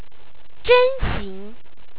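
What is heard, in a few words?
"zhe1nxi2ng", Chinese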